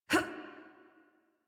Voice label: Adult Operator Jump, en; Warframe; New War

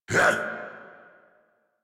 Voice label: angry, en; Warframe; Tyl Regor Boss; Efforts

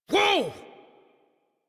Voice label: Horse Stop Adult; Warframe; Duviri

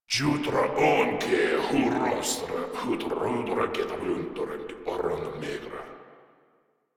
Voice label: Patrol, Grineer Male; Warframe

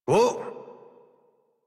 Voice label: Horse Stop Walk Adult; Warframe; Duviri

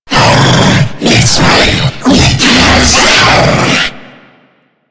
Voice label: Boss Speak Combat Taunt; Warframe; Taunts; Lech Kril

